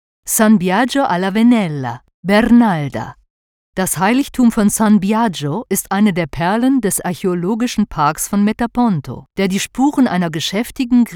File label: Audioguide, en-US; Italy